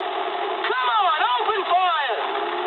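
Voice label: English attack position mood low; War Thunder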